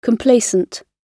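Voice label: "complacent", British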